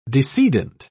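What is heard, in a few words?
"decedent", English, British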